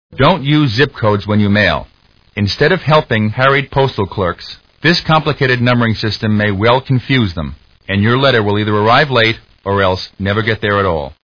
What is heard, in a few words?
Commercials; Freekin Weird Audio